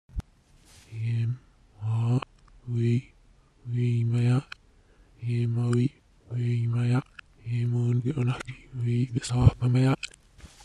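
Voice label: Reversed speech 2 - Produced by recording myself saying 'I am a part of you, you can not ignore me, you are me, I am you, you are me' reversed and distorted in premier; sound production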